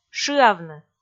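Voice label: "шыавны", Komi, female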